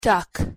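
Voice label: "duck" pronunciation English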